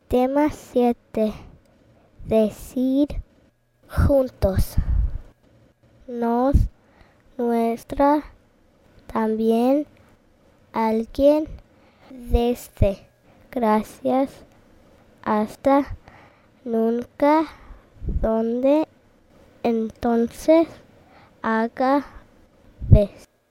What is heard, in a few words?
High Frequency Spanish